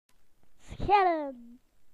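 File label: "to peel", British English; PictureDictionary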